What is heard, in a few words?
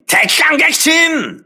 voice message enemy destroyed, crew ships japanese